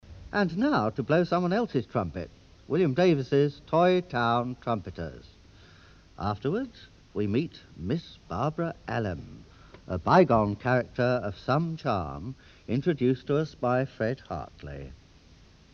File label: Announcement